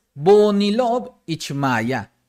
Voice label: Los colores en Maya - Boonilo'ob ich maaya

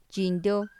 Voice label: gusano, Mazateco; INALI

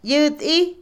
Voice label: plantar, sembrar, Otomí, mujer; INALI

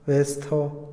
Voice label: "pronto", Otomí, hombre; INALI

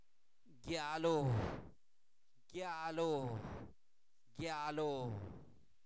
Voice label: Zapoteco Albarradas, santo domingo albarradas; cuerpo; ojo; INALI

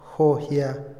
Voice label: "alegre", Otomí, hombre; INALI

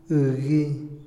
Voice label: "dolor", Otomí, hombre; INALI

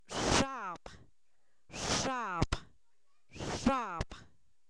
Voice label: Zapoteco, san miguel albarradas; ropa; objetos inanimados

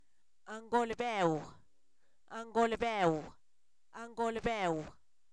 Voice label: chicharra, Zapoteco, san miguel albarradas; animales; INALI

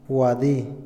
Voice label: fin, Otomí, hombre; Tiempo; Vocabulary; INALI

